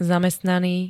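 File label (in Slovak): "zamestnaný", Slovak; zaneprázdnený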